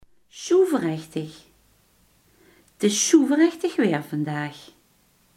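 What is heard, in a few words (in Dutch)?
guur, Limburgse gesproken woordenboeken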